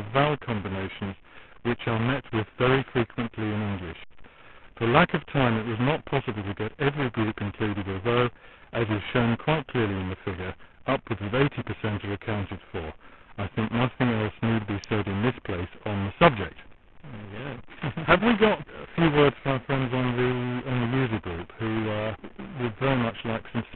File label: speech distorted; Digital Distortion